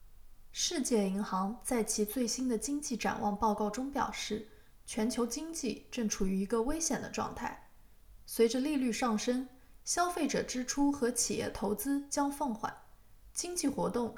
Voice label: mandarin full